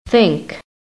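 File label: "think", American English; PHONETIC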